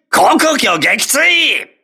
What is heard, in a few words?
voice message aircraft destroyed, crew ships japanese